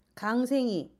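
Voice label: dog, Jeju-eo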